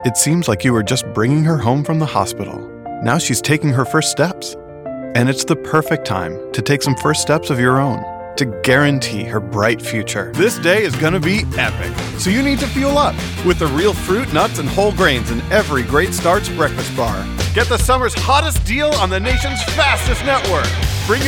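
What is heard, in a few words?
Commercial, voice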